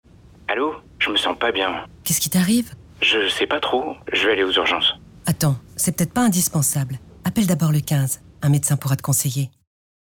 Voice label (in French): URGENCE RADIO